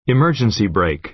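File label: "emergency brake", American English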